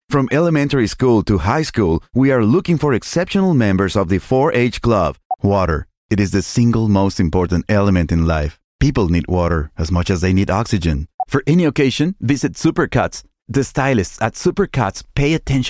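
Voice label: Spanish English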